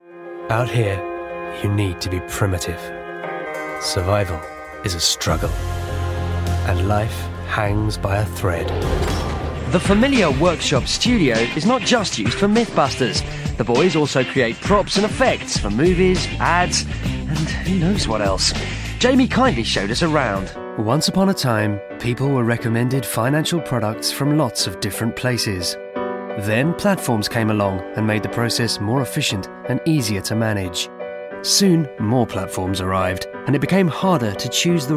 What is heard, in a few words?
Narration